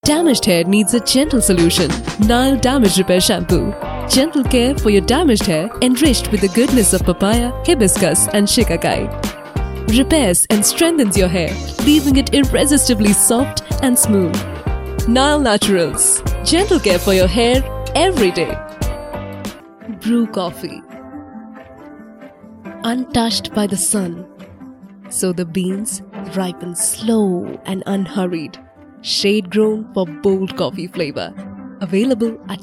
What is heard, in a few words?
Commercial English